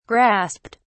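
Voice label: "grasped", British English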